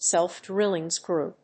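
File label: "self-drilling screw", English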